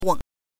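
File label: "weng", Mandarin Chinese syllable, neutral tone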